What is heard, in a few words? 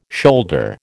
"shoulder", American